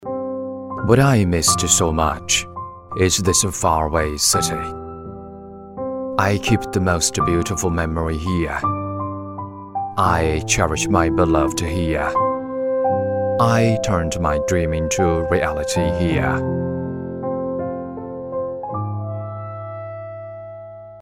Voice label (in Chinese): 男英 外语 国内英语 专题走心深情想念一个城市